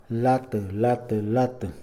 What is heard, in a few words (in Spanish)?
"bote, lata", Mixe, hombre; Moderno